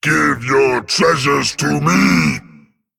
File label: Male Dragon Evil Threaten